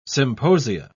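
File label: "symposia", British English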